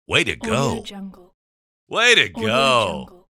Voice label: male voice way to go